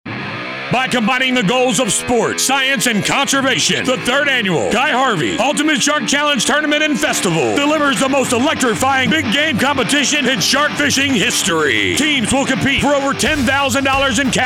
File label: Radio Spot